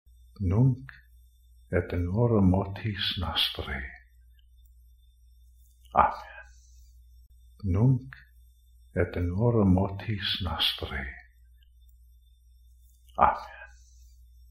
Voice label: Ave Maria LATIN